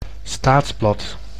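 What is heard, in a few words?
"staatsblad", Dutch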